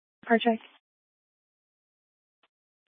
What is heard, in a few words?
Fire Dispatch